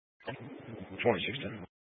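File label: Sheriff Dispatch, Scanner audio recording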